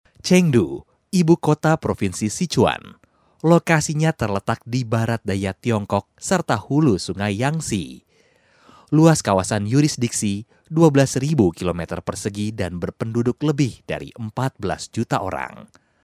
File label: Indonesia male